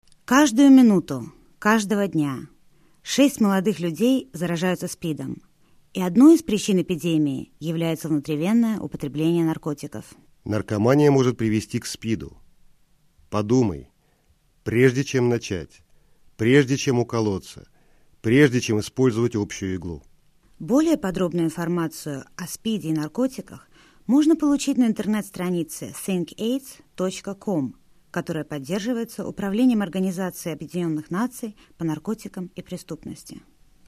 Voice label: spot russian

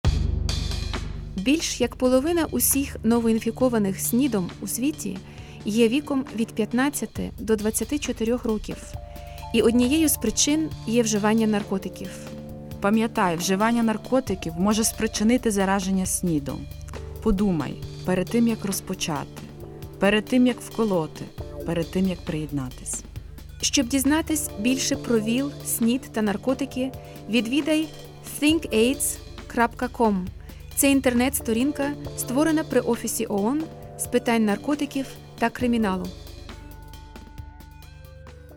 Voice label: spot ukrainian music

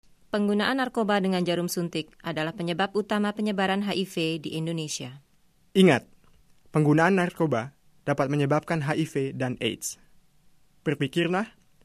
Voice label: spot indonesian

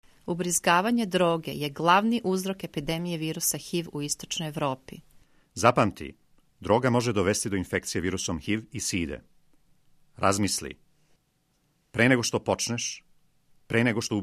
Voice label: spot serbian

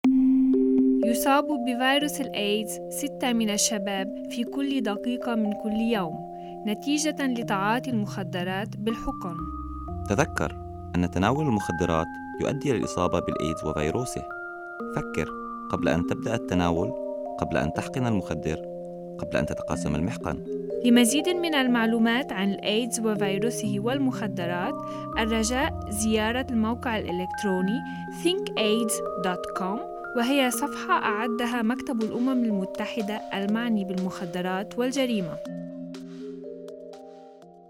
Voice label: spot arabic music

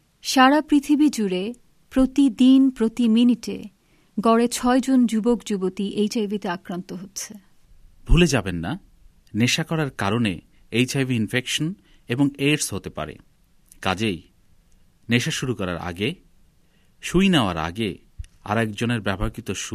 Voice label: spot bengali

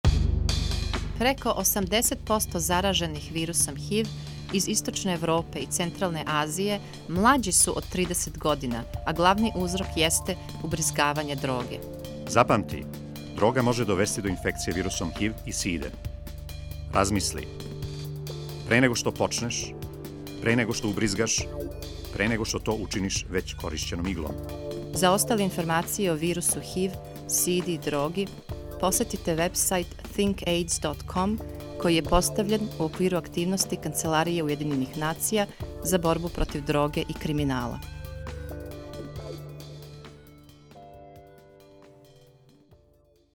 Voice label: spot serbian music

